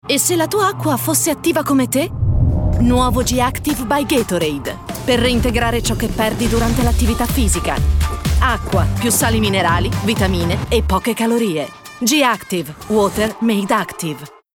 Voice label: female italian comercial; ideasonora